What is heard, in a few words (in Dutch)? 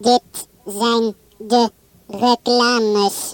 Reclames shout; Pop-Poll 93